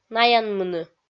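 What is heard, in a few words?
"наянмыны", Komi, female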